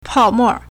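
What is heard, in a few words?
"pao4mo4", Mandarin Chinese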